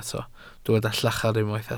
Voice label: "llachar", Welsh